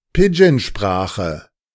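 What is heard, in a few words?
"Pidgin-Sprache", German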